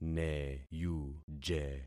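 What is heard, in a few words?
"neyuje", concatenated syllables; Affixing experiment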